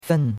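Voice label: "fen", Chinese, fourth tone; Cours de Chinois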